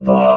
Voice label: "the", robot